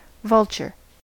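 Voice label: "vulture", American English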